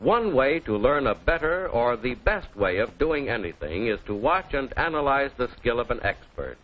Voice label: skill of expert, Quirky Female Typing Instructor; VOCALS and SPOKEN WORD